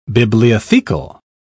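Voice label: "bibliothecal", British English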